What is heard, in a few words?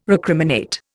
"recriminate", Indian